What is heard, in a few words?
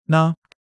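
"呢" Chinese; HSK1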